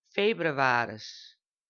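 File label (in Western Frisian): "febrewaris", Frisian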